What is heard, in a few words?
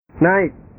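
"naik", Indonesian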